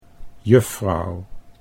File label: "juffrouw", Dutch